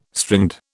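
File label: "stringed", British English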